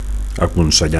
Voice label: "aconsellar", Catalan; to advise

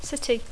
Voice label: "city", English

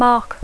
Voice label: "mark", English

